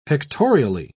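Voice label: "pictorially", British English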